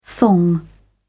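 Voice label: "thong", British English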